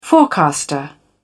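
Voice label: "forecaster", en, uk British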